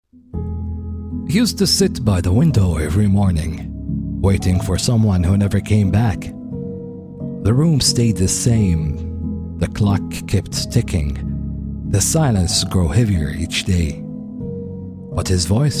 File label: english commercial